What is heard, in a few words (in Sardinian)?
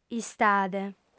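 "istade", Sardinian, female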